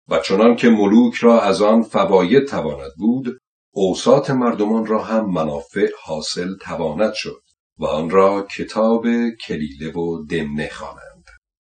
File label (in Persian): نمونه صدای آقای; آواگر